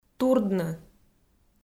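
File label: "турдны", Komi, female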